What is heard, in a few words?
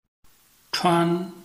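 "chuan", Chinese, tone 1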